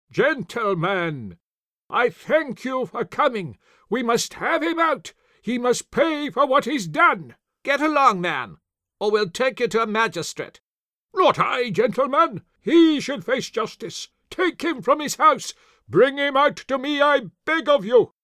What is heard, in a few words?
Audiobook Historical